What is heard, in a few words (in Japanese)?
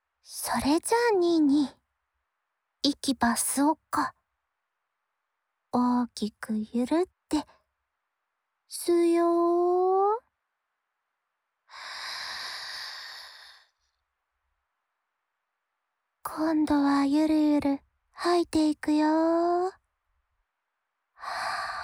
ブレッシングメディテーション